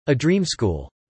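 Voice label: "a dream school" spoken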